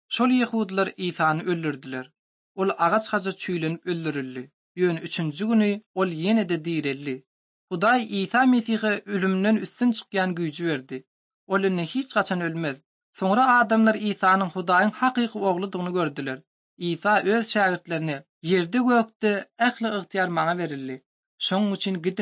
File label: turkmen, ot drama